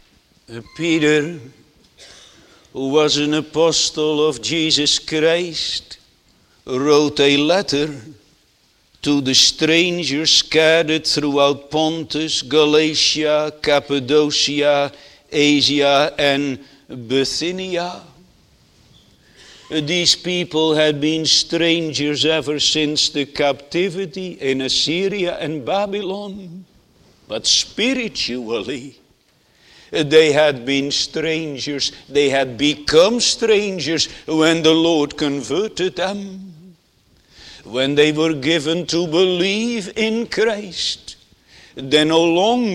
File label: Sermon